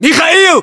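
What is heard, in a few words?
"mikhail", battlechatter, Russian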